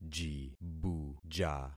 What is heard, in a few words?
"jibuja", concat syllables; Affixing experiment